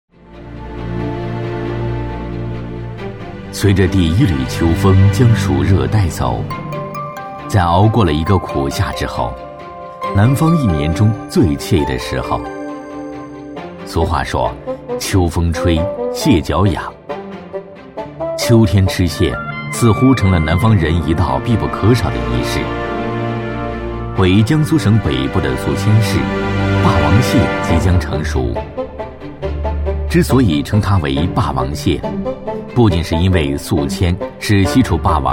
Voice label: 男 舌尖体, 历史沧桑 舌尖感; 霸王蟹

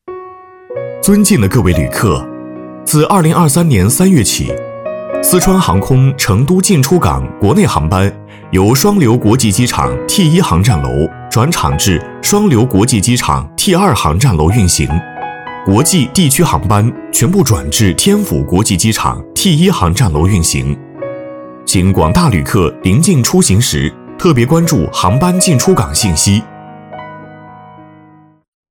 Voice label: 语音播报 航空公司 亲切年轻, 男; 大气主流; 舒缓抒情

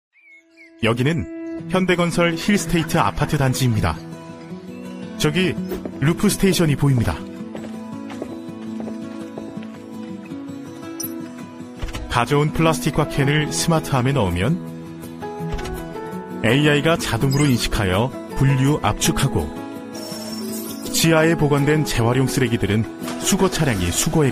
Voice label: 韩语男声

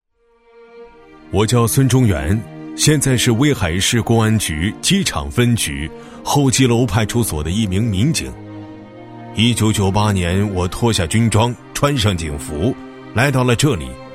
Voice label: 男 党建 第一人称; 年轻浑厚 自然诉说; 坚守·不息

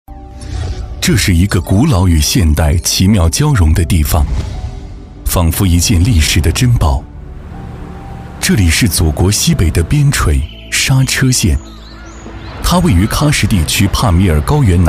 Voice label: 男 纪录片 娓娓道来; 沙车县; 激情有力 高端大气

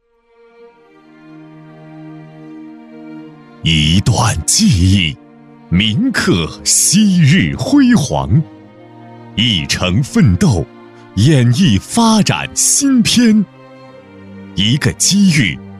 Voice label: 男 城市宣传片 恢宏大气; 高端大气; 大气浑厚; 百年钨镇

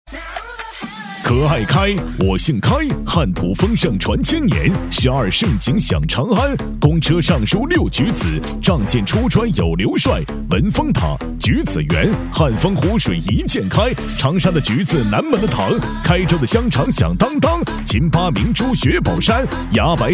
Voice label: 男W3-可爱开+BGM, 喊麦激情 成熟厚重

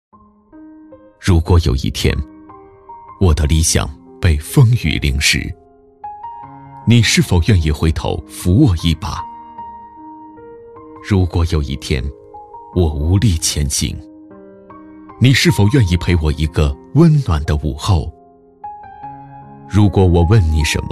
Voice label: 男-走心解说-深情低沉, 磁性大气 大气浑厚; 变形记片头

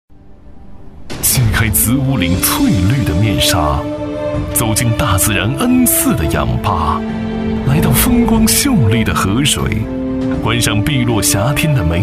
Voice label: 男 宣传片配音 大气优美; 合善乐水; 时尚大气

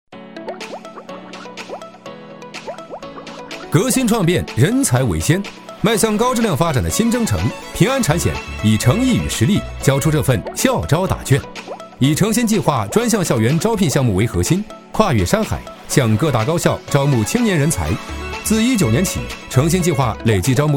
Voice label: 男 广告片 校招 青春; 中英双语 年轻舒缓; 平安财险校招

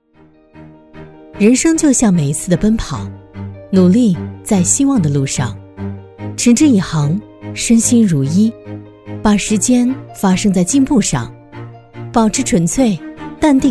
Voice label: 女 走心旁白 阳光积极; 深情感人

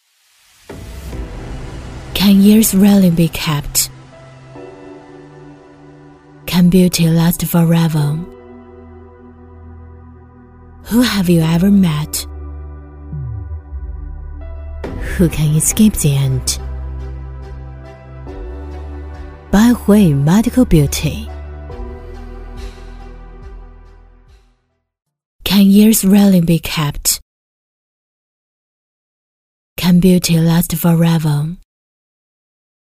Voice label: 女 高端英文广告 磁性优雅; 柏卉医美; 质感磁性

